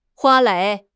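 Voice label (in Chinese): "花蕾", Chinese; HSK6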